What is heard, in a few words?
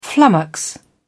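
"flummox", British English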